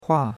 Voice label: "hua4", chinois; Cours de Chinois

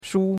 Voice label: "shu1", chinois; Cours-de-Chinois

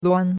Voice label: "luan1", chinois; Cours de Chinois

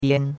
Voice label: "bian1", chinois; Cours-de-Chinois

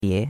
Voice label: "bie2", chinois; Cours de Chinois

